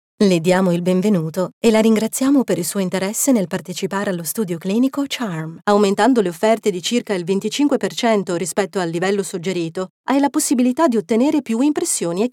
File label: e Learning